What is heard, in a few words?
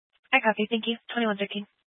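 Police Dispatch, scanner audio recording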